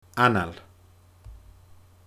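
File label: "anail", Scottish Gaelic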